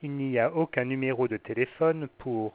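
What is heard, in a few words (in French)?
French there is no phone number for; Samsung SGH-i310; Voice Sounds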